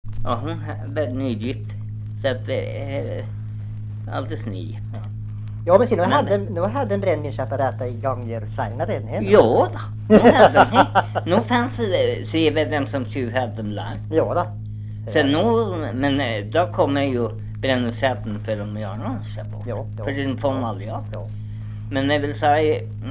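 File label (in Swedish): Norsjö Västerbotten, dialekter; Folkminnen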